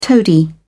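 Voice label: "toady", British English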